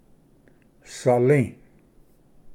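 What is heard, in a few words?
"sali", Cherokee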